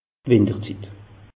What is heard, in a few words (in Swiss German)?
"winterzit", alsace; meteo